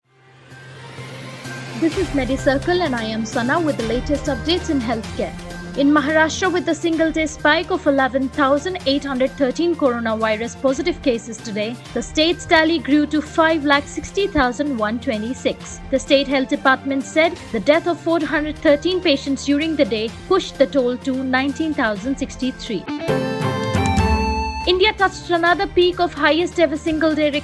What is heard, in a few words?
english news